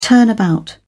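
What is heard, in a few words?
"turnabout", British English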